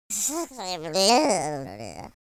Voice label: sad, speech, French